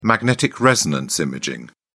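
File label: "magnetic resonance imaging", British English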